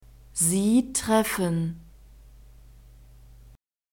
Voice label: "sie treffen", German; verbs present tense irregular